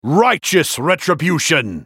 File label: attack, en US; JarvanIV